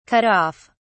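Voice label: "cut off", British English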